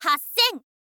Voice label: "hassen", girl; voice

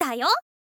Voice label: "dayo", girl; voice

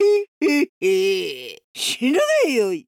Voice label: necromancer oldwoman special, voice

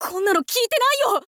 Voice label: thief boy start

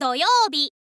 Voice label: "doyoubi", girl; voice

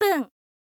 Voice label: "pun", girl; voice; info girl

